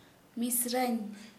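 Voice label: sister, Santali, female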